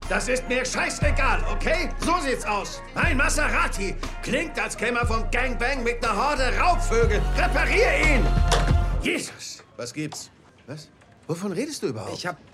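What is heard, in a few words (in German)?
Synchron, Sprecher, Männer; YouPeople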